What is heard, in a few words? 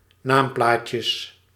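"naamplaatjes", Dutch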